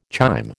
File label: "chyme", American